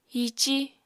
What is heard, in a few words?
"hiicí", apatani